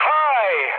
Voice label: trick, mood med, speech tur; War Thunder